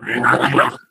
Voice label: angry